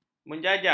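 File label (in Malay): "menjajak", Malay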